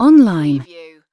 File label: "online", UK female; lynne publishing